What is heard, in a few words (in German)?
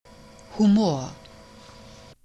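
"humor", German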